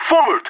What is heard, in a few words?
radio lets go, vo agents; leet